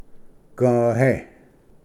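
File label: "gvhe", Cherokee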